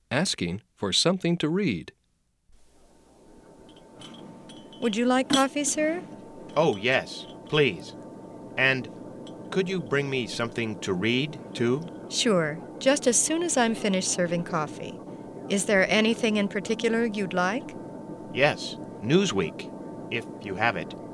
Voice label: travel english sample